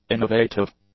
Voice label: "innovative", English; adjectives